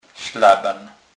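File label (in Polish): "slaban", Kurpie dialect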